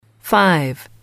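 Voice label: "five", english, female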